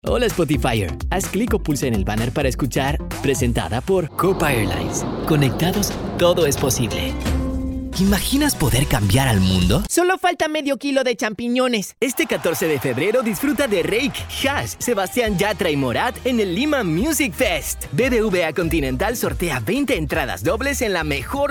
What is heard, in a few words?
spanish latin american